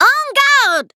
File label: battle de; cookie run